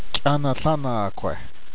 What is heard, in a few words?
Laguna, spoken in Shiwi; Zuni